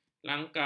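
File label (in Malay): "langkau", Malay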